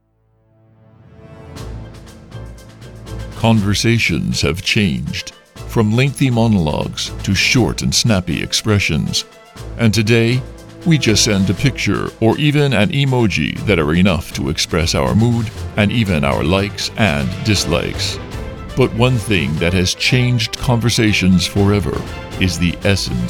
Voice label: Deep Dramatic with music; Essence of Time